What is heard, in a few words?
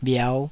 "bhiau5", taiwanese